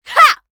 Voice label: Voices Vo Female Fight Attack; Mighty Party; Android

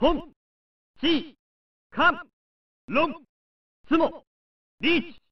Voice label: "Hun-si-kont-loonght-tsumo-neets!" (Male); pro mahjong kiwame 2; snes

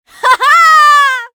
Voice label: Female Fight Appear; Mighty Party; Android

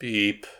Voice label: Voices sad; The Looker

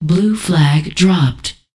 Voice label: Voices Announcer Status

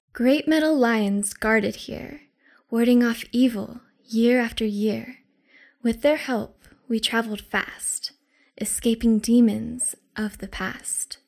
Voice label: Poetry - Metal Lions

